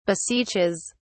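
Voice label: "beseeches", British English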